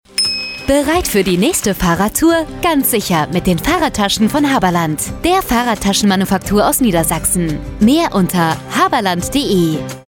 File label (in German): Funkspot; Haberland GmbH